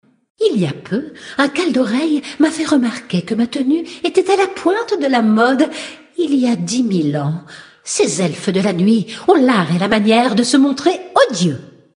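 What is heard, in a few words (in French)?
Female Blague, voix françaises; Sacrenuit; Alamode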